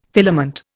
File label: "filament", Indian